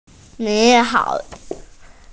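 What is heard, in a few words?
"ni3hao3", Mandarin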